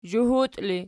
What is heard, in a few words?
"zhuhut", Archi